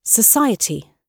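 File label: "society", British English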